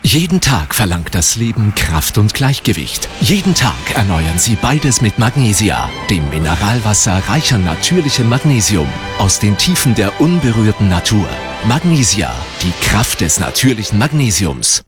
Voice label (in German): WERBUNG; Magnesia